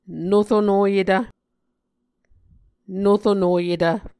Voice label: "noronoyetv", Muscogee

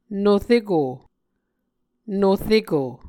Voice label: "noreko", Muscogee

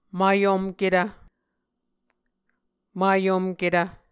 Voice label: "mayomketv", Muscogee

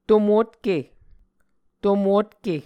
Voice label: "to-motke", muscogee

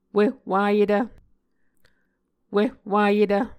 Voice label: "wihwayetv", muscogee

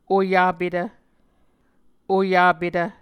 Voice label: "oyapetv", Muscogee

